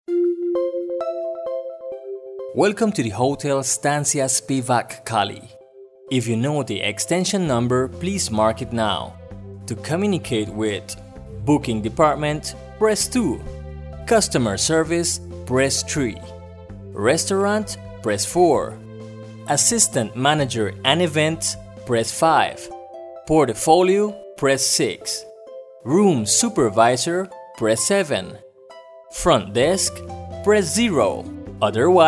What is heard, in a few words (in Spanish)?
Pbx Voz Masculina; Hotel; Cali Colombia; Stancia Spiwak